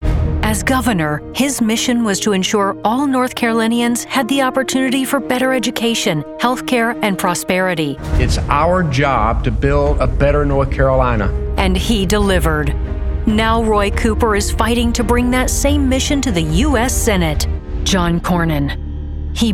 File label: voiceover narration women; new york